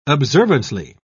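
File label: "observantly", British English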